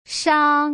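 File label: "shang", Mandarin Chinese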